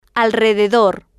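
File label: "alrededor", Spanish, female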